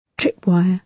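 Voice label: "tripwire", British English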